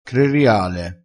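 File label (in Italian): "creriale", vocabolario dialettale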